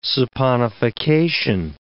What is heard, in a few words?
"saponification", English